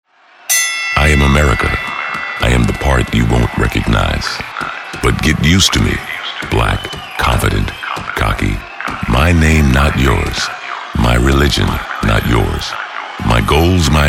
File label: Documentary